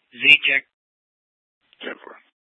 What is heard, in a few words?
PD Dispatch